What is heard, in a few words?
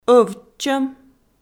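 "ӧвтчӧм", Komi, female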